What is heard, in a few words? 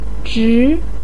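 "zhi2", Mandarin pinyin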